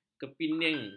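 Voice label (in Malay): "kepinding", Malay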